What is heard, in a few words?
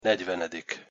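"negyvenedik", Hungarian pronunciation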